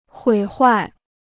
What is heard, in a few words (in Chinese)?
"毁坏", Chinese